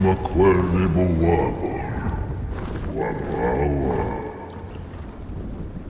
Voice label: voiceover; Ori and the Blind Forest; gamesoundopedia

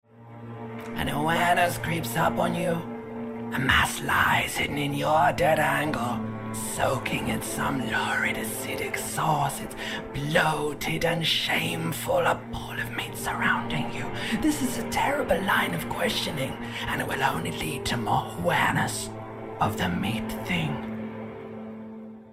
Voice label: lymbic system voiceover

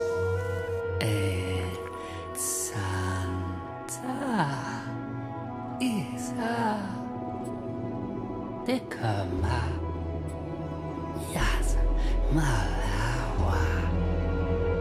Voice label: voiceover

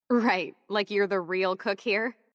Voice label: young voice; life is strange

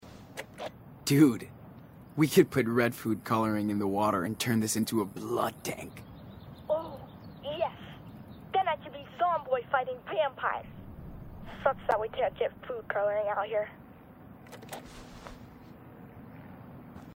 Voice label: talking walkie talkie; Life is Strange 2; gamesoundopedia

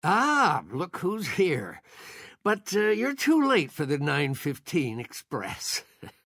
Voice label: grandpa voice; Life is Strange 2; gamesoundopedia

